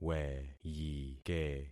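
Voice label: "weyike", concatenated syllables; Affixing experiment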